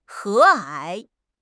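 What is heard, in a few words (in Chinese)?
"和蔼", Chinese; HSK; HSK6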